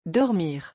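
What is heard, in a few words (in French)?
"dormir", French; vocabulaire; le lexique des vacances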